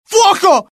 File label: alert, dialogue IT; mohaa; attack